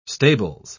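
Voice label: "stables", English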